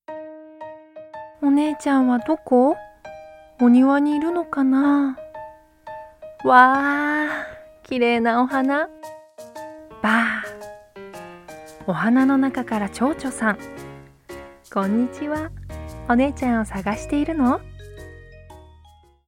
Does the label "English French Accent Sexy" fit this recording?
No